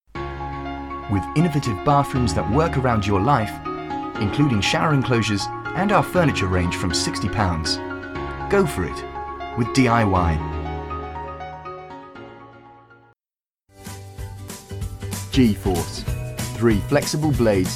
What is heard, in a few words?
Commercials